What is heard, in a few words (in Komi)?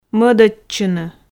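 "мӧдӧдчыны", Komi, female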